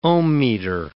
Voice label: "ohmmeter", English